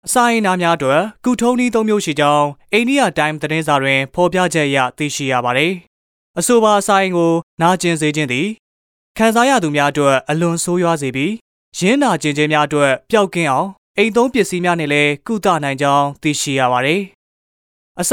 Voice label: Burmese Voice Sample Male

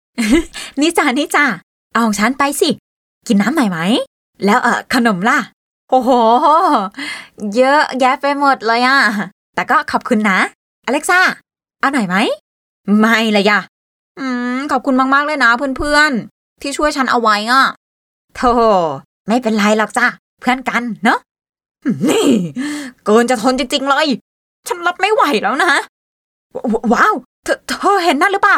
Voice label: Thai Female Character

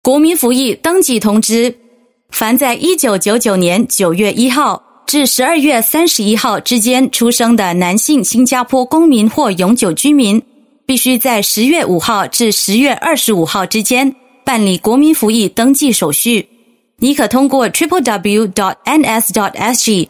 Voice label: Mandarin Registration

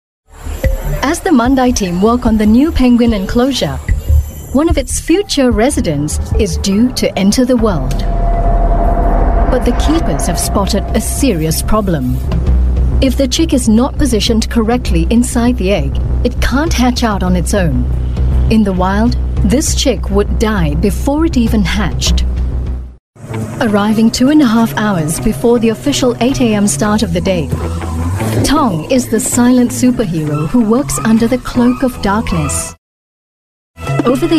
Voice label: Asian English Documentary; CNA The Great Migration First Steps

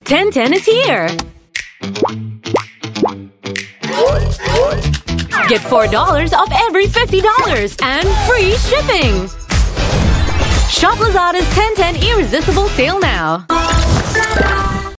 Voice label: Asian English Sale; Lazada; 1010 Irresistible

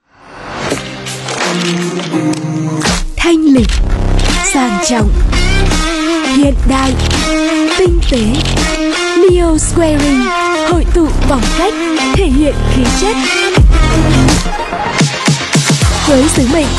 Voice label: North Female, VIETNAMESE NORTH FEMALE VOICES

